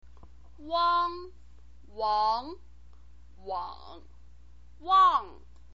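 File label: "uang", Mandarin Chinese pinyin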